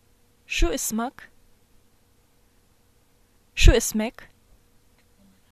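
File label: what is your name, Arabic, Syria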